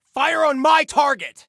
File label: Target Fire On My, Soldier Male